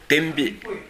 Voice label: "denbi", minamiyamagata